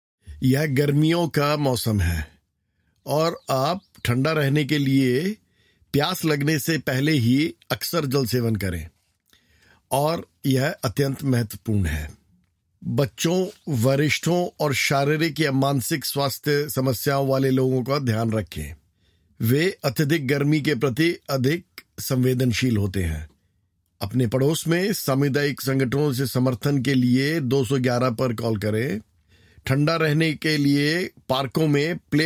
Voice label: Message Hindi